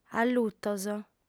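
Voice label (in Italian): "allutos", lingua sarda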